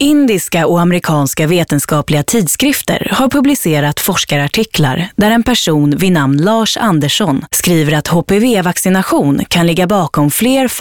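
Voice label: Swedish, female, news; nyheter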